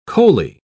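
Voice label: "coaly", British English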